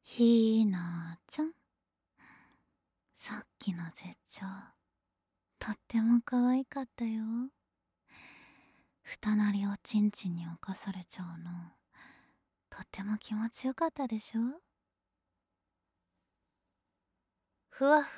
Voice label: 吐息なし

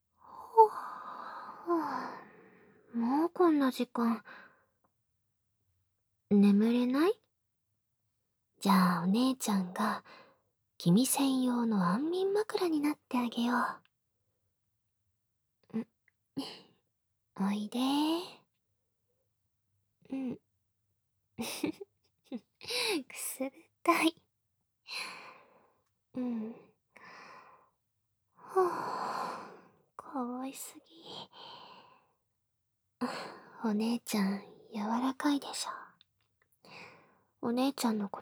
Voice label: おやすみアラームボイス

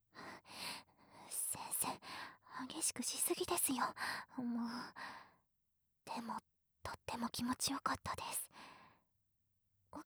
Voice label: おやすみボイス; 先生Ver

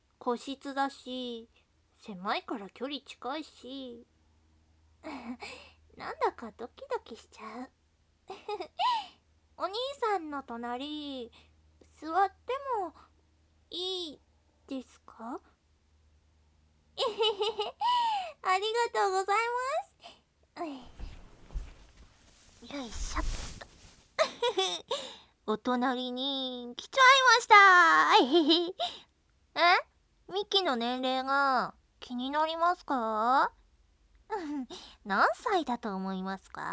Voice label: 会話しながらハンドマッサージ; JKリフレで裏オプ中出しエッチしよ♪; 快楽ボイス研究所

